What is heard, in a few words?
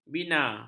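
"bina", Malay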